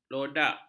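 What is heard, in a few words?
"lodak", Malay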